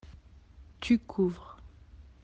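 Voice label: "couvrir", French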